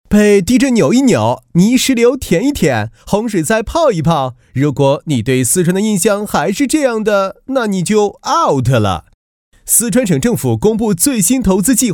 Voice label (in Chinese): 病毒类, 国语男声配音; 四川平安方案